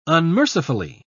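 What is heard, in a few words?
"unmercifully", British English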